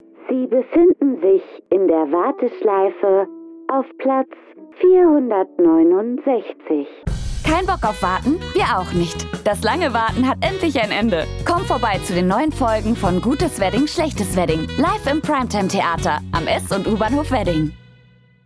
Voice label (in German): Radio Werbung; LOFT VOICES